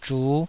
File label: "zhu5", taiwanese